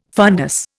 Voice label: "fundus", Indian